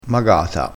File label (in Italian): "magata", dialettale crespinese